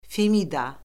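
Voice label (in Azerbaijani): "femida", Azerbaijani; Orfoqrafiya Lüğəti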